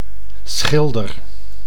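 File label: "schilder", Dutch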